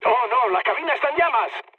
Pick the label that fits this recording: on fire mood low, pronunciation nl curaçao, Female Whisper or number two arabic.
on fire mood low